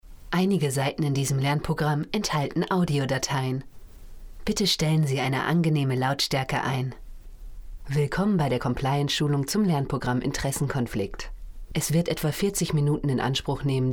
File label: Foreign-Voices German Female